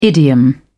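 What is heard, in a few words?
"idiom", English, British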